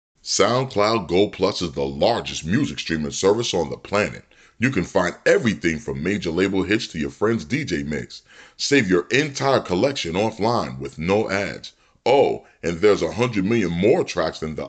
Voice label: english usa male